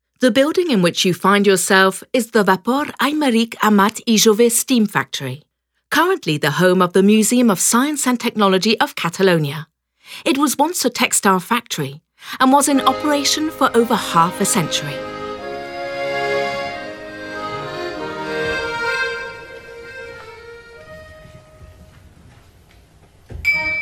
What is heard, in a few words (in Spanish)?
audioguia; fabrica textil engl